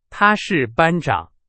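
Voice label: Chinese, example sentence; hsk2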